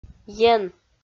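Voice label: "ен", Komi, female; kpv audio dict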